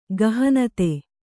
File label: "ಗಹನತೆ", Kannada; gahanate; noun; denseness.